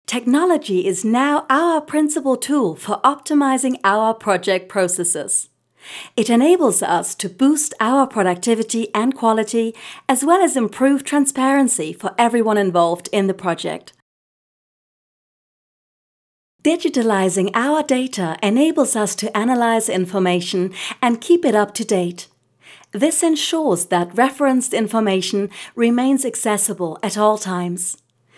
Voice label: female German English with undetectable accent corporate; steiner explainer